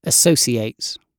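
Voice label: "associates", British English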